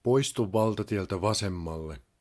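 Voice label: Motorway Exit Left, Finnish, male; Opas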